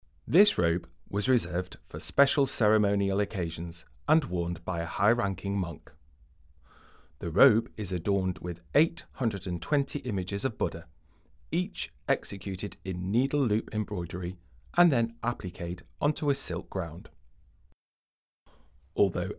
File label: ENG, audio guide; Chris Hall collection